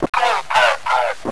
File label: zombie male, go